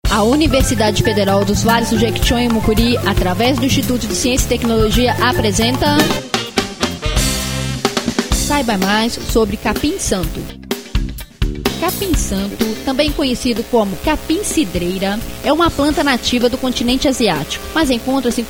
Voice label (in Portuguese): SPOT; CAPIM SANTO